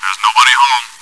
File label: chitchat, bot, voice commands; svencoop